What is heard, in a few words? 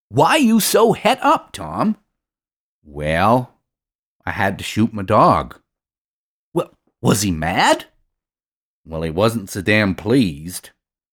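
US English, Comic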